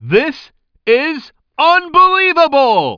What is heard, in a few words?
commentator